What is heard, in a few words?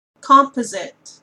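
"composite", Canadian English; pronunciations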